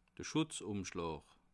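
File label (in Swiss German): "schutzumschlaa", Bas Rhin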